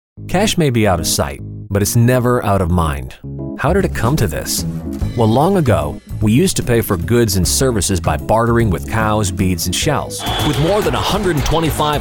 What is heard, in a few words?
Commercial